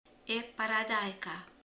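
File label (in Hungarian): "paradicsom", Hungarian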